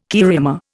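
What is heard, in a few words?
"cariama", Indian English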